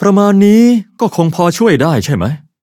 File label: victory, th; Cookie Run